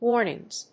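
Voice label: "Warnings", English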